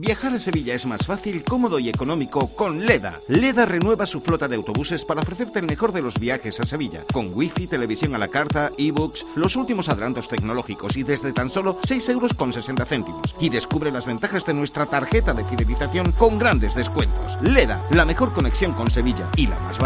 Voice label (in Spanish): CUÑA; LEDA